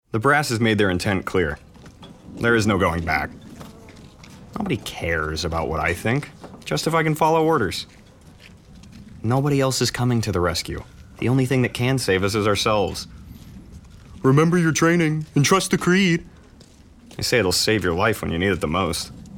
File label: Indifferent Soldier